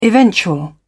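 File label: "eventual", British English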